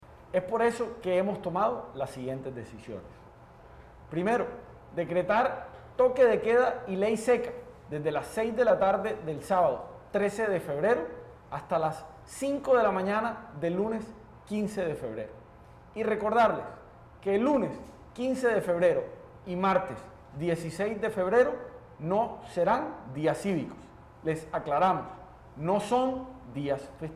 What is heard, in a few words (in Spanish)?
AUDIO ALOCUCION MEDIDAS; JAIME PUMAREJO; barranquilla; toque de queda; ley seca